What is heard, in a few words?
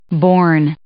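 "borne", English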